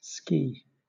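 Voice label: "ski", British English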